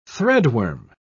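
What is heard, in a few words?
"threadworm", British English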